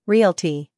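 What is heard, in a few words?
"scandal", American; realty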